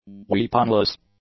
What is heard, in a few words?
"weaponless", English; adjectives